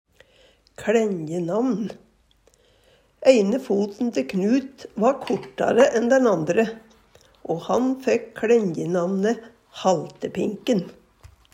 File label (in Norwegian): "kLænjenamn", Numedalsmål